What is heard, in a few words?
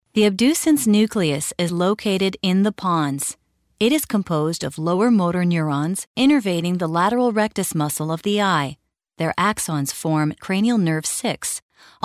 female canadian voice over narrative